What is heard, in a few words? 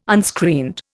"unscreened", Indian